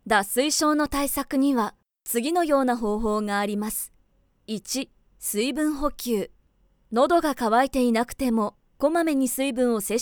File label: female